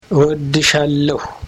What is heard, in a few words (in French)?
amharique